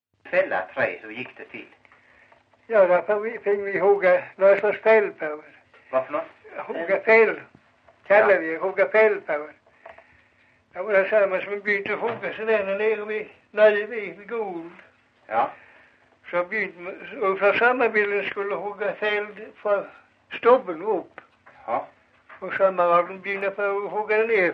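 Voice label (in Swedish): Farstorp Skåne, dialekter; Folkminnen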